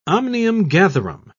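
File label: "omnium-gatherum", English, British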